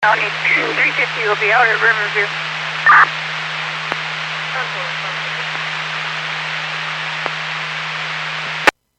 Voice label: EMS Dispatch

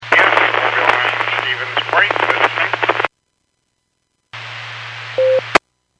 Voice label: Skywarn 146.67; Stevens Point

